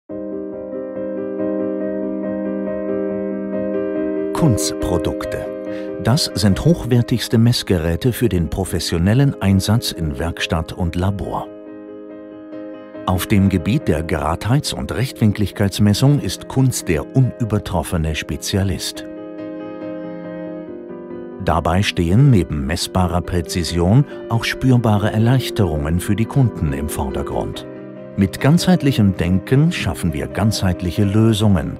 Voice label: Product Videos Entreprise, German voice-over, Credible and Experienced; Kunz Precision